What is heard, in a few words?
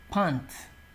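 "pant", Nigerian Pidgin, male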